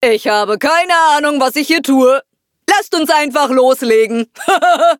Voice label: loading, de; Cookie Run

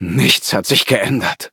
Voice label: defeat, de; cookierun

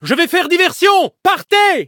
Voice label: defeat, fr; cookie run

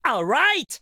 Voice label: upgrade, ja; Cookie Run

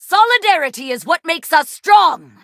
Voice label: battle en; cookie run

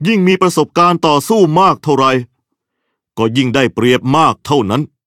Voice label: level up, th; cookierun

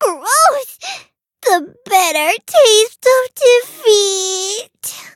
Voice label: defeat, en; cookie run

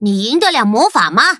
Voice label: battle, zh-hant

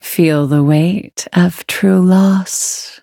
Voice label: levelup, en; Cookie Run

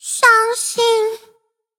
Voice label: defeat, zh-hant; Cookie Run

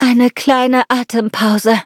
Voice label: defeat, de; Cookie Run

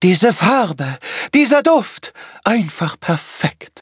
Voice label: level up, de; Cookie Run

